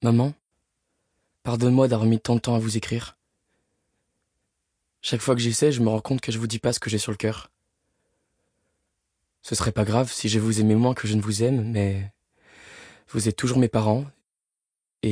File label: Narration